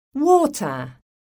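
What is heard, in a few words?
"water", English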